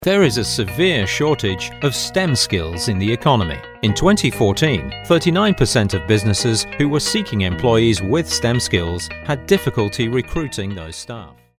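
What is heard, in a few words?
English British, male, corporate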